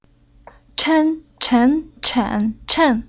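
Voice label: "Chen", Chinese syllable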